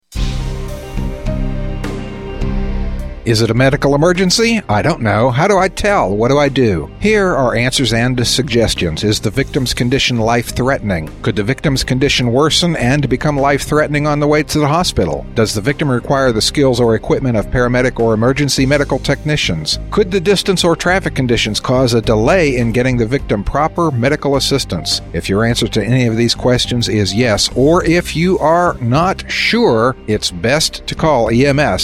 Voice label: radio psa; ems week; ems and disaster preparedness